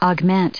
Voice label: "augment", us